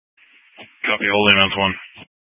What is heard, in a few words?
Fire Dispatch, scanner